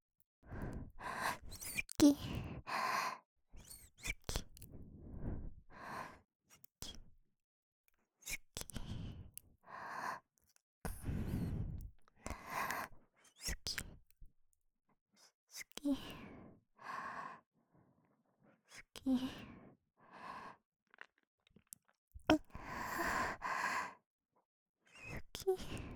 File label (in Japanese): "スキ", ASMR